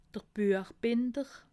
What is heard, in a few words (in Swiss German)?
"buechbinder", alsace